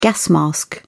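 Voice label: "gas mask", British English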